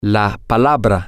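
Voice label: Spanish, word, male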